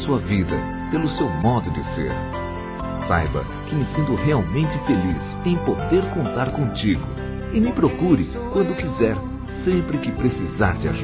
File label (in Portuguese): AMIZADE GERAL, Telemensagens, Gospel, masc